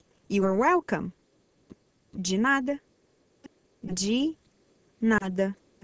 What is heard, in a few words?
Portuguese Welcome